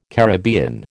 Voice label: "Caribbean", American